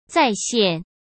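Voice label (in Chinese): "再现", Mandarin Chinese; hsk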